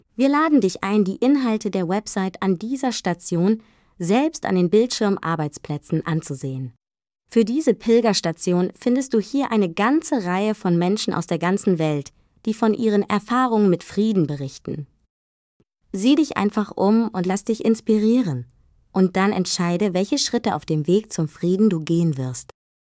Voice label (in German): Text Meditation